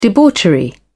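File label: "debauchery", British English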